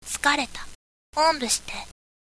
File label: girl